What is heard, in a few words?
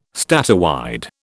"statewide", British